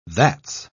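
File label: "that's", British English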